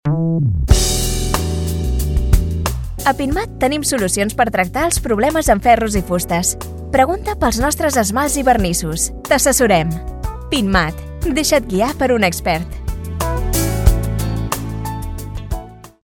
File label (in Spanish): ESMALTES Y BARNICES CATALAN